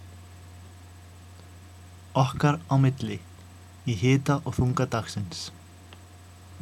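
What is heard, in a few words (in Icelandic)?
Okkar á milli, Icelandic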